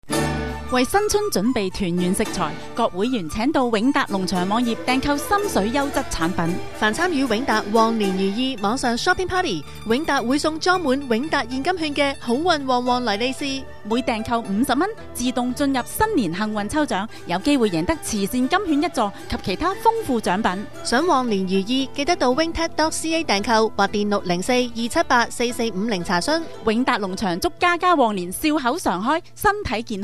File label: radio advertisement; Wingtat